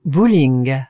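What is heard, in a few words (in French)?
"bowling" French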